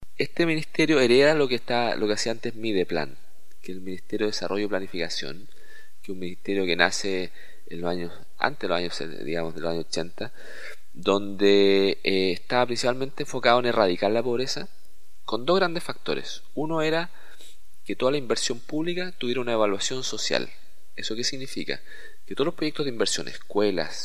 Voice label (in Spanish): Cuña